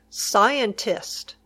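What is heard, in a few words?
"scientist", English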